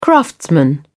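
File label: "craftsman", British English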